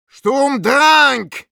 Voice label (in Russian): Medic cheers, ru